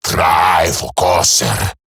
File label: Cover Me Eximus Grineer Male; Battle Barks